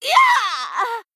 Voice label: Female Troll Death